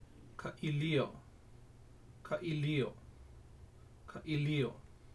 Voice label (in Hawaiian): Ka Ilio, hawaiian pronunciation; hawaiian pronunciation guide